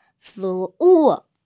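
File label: "fu2wu4", Mandarin Chinese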